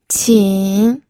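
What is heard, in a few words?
"qin", Mandarin Chinese, third tone; pinyin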